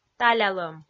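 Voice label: "талялӧм", Komi, female; audio dict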